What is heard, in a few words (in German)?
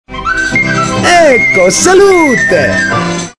prost auf italienisch